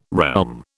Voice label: "realm", British

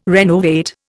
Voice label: "renovate", Indian

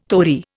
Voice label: "tori", Indian

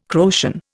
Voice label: "Croatian", Indian

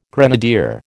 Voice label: "grenadier", American

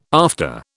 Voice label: "after", British

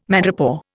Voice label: "madrepore", Indian

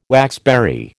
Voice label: "waxberry", American

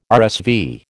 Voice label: "RSV", American

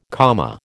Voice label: "Kama", American

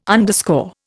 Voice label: "underscore", Indian

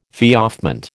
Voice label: "feoffment", American

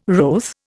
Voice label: "wroth", Indian

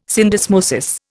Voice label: "syndesmosis", Indian

